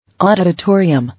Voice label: "Auditoriumn", English